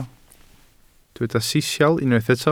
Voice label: "sisial", welsh